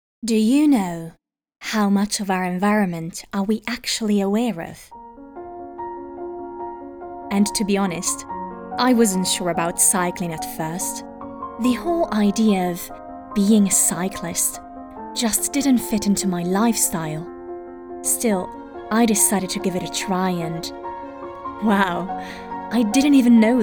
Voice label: female, Italian, English with European accent, bike video commercial